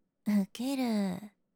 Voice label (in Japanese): うけるー（ローテンション）; リクエストシステムボイス